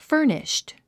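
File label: "furnished", English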